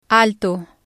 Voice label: "alto", spanish

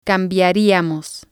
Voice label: "cambiariamos", spanish

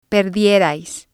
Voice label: "perdierais", spanish

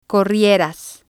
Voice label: "corrieras", spanish

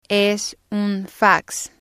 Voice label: "es un fax", spanish

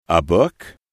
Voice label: "a book", english

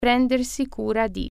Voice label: take care of, italian

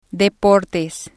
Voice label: "deportes", Spanish

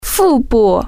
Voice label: "belly", Chinese

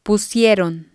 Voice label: "pusieron", Spanish

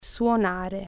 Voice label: play instrument, italian

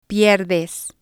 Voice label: "pierdes", spanish

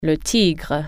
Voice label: "tiger", French

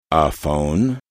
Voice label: "a phone", English

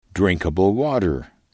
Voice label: "drinkable water", english

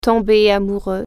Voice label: fall in love, french

